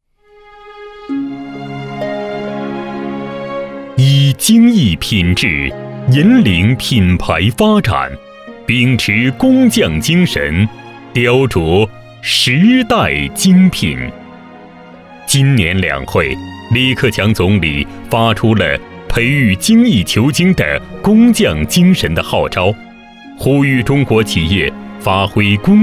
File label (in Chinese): 男 精工品质 企业专题